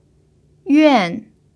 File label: "yuan4", Mandarin pinyin